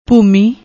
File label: "bhoomi", Punjabi; ਭੂਮੀ